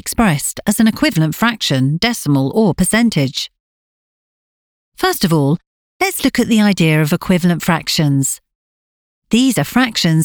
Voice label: english british e-learning